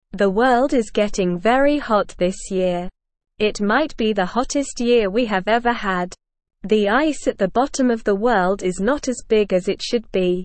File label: Beginner SLOW Reading, English; English Newsroom; The World is Getting Hot What Can We Do